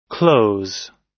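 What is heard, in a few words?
"close", American English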